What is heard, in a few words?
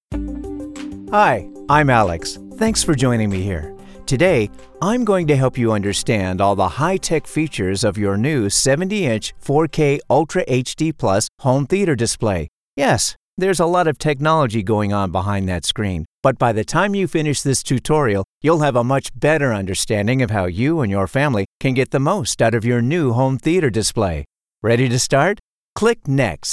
us elearning, mann; engelsk-us